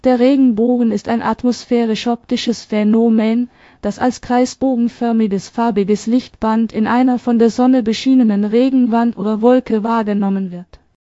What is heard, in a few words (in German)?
speaker, de DE; piper-voices